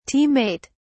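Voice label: "team mate", British English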